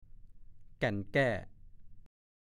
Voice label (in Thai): "แก่นแก้", ภาษาโคราช; แท้ ๆ จริง ๆ